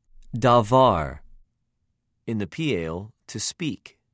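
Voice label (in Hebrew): "דָּבַר", Hebrew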